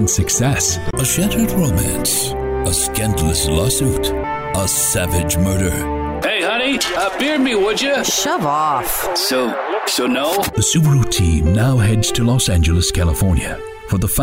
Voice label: Real Conversational Impactful Commercial